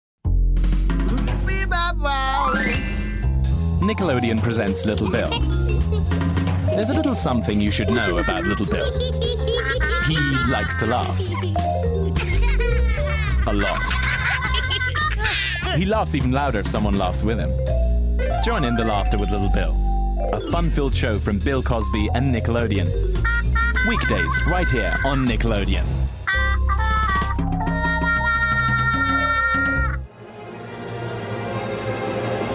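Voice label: English UK General; GoLocalise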